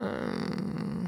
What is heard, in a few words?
sleep, woman; Stalker Anomaly; characters voice human states